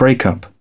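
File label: "break", British English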